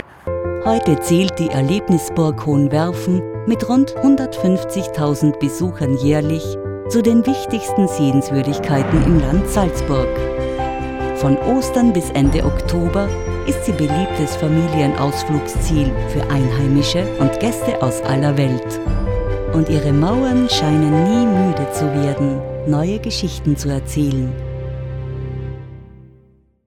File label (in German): doku; werfen